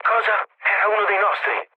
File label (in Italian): player killed ally, mood low, speech it; War Thunder